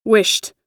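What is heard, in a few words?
"wished", US English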